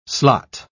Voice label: "slot", pronounce